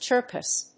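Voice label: "Cercus", English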